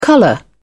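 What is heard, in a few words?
"colour", British English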